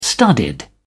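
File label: "studied", English, uk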